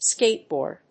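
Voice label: "skateboard", English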